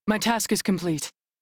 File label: Crew Task Done, Female; Railjack Crew; New Loka